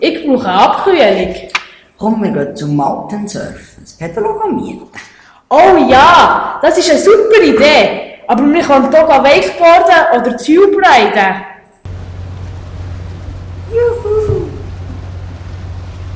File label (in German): Werbung; Mountainsurf, Thunersee; Unterseen